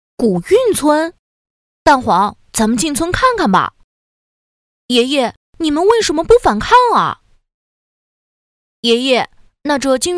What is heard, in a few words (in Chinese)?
女 童声; 豆黄; 蛋黄